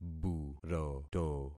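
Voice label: "buroto", concatenated syllables; Affixing experiment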